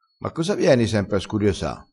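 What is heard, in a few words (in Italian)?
"scuriosa", vocabolario dialettale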